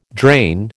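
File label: "drain", American English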